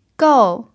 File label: "gou4", chinese pinyin